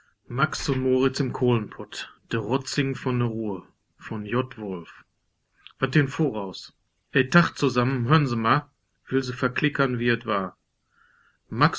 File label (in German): dialekte Ruhrdeutsch